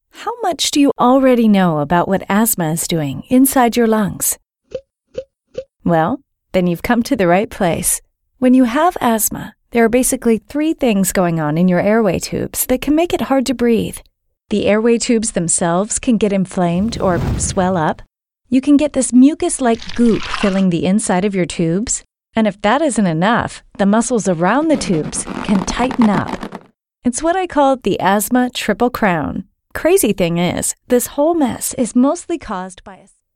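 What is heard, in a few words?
american us female e-learning; english; voice demos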